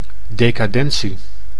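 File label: "decandentie", Dutch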